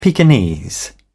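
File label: "pekinese", English, British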